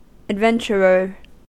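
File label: "adventurer", US English; pronunciations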